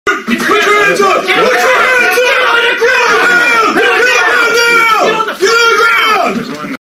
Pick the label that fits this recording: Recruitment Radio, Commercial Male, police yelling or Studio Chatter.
police yelling